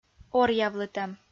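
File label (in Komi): "оръявлытӧм", Komi, female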